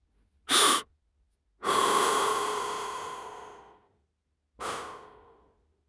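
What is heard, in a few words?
"sheng1 yin1", Mandarin Chinese; HSK3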